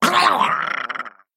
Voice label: male murloc death

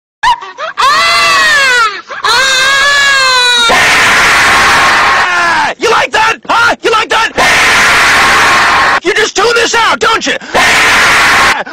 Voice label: yelling; sonic 3

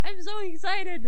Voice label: excited

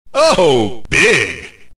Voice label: uh oh big

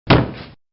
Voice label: thud ouch

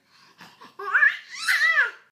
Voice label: chat

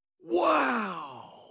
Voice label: goofy wow

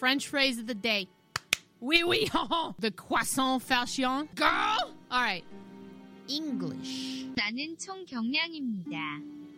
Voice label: french

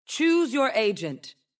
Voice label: choose your agent female voiceline; valorant

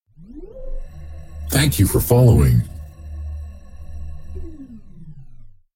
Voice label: thanks for following male normal grateful

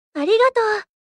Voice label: cute arigato

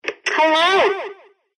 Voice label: glitter fx hello

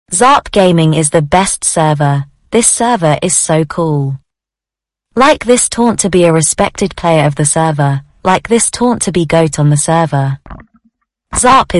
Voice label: narrator

